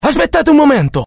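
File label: curious, Italian; mohaa; dialogue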